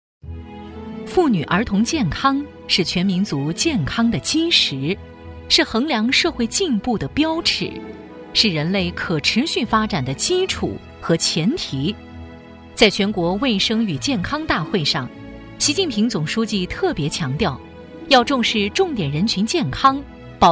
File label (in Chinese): 女 稳重大气; 党政专题; 质感知性; 沉稳大气; 托起生命的太阳